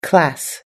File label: "class", us english